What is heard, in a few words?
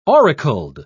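"auricled", British English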